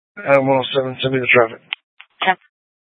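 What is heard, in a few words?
Sheriff, Parks, Sheriff radio scanner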